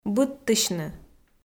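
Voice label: "быдтысьны", Komi, female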